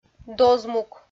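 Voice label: "дозмук", Komi, female; kpv audio dict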